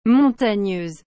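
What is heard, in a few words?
"montagneuse", French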